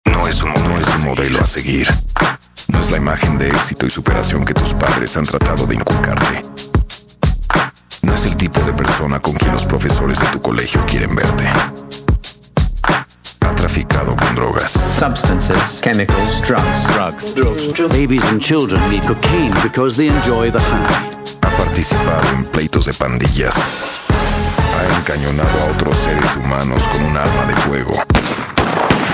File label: Promo, locucion